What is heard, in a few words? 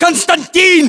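"konstantin", battlechatter, Russian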